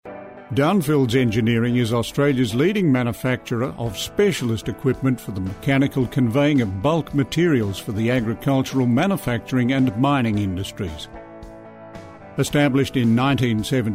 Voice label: Corporate

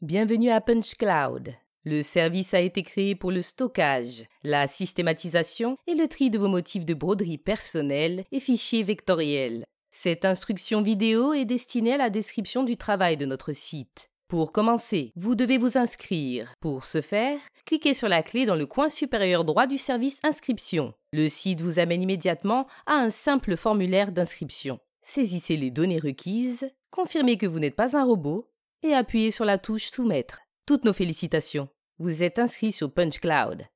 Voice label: FRENCH FEMALE VOICE OVER; PUNCHCLOUD AUDIO

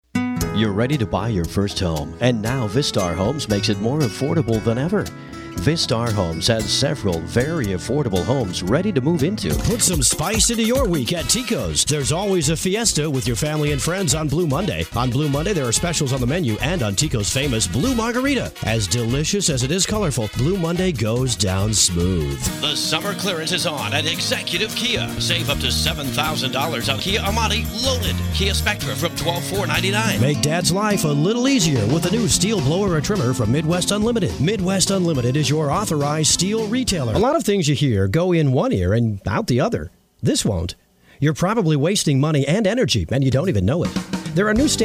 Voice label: Radio TV commercials

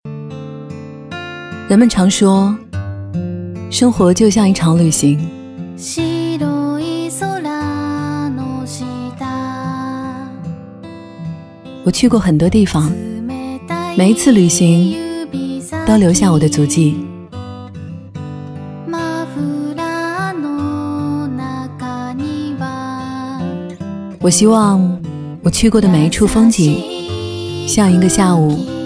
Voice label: NARRATOR

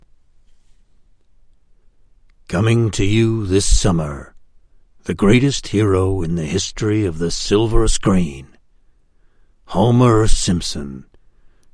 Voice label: Movie Trailers

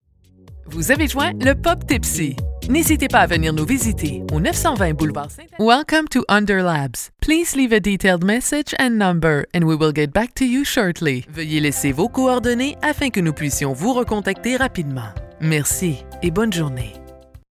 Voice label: mailbox

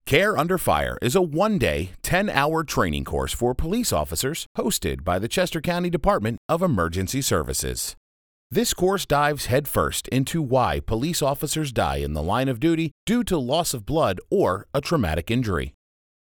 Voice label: Read; Care Under Fire

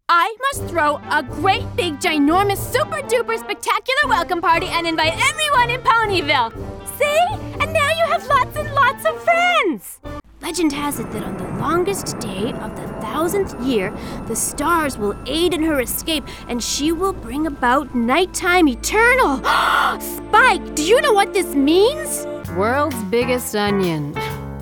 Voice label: Animation

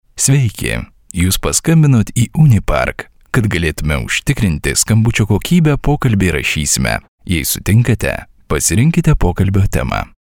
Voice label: Lithuanian IVR

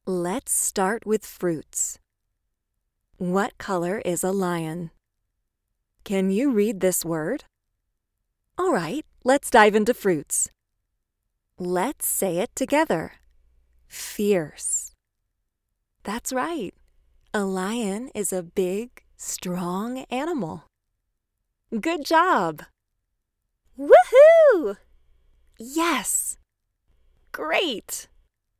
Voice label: ELearning Kids

